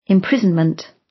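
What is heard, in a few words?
"imprisonment", British; Crime and punishment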